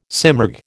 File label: "simurg", American